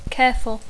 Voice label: "careful", English